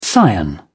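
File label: "scion", British English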